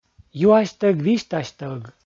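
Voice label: "юасьтӧг-висьтасьтӧг", Komi, male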